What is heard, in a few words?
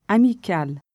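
"Amical", French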